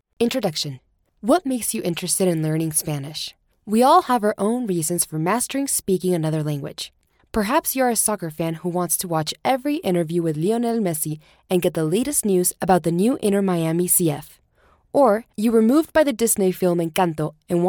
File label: english american audiobooks